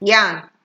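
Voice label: "yarn", Nigerian Pidgin, female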